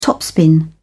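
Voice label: "topspin", British English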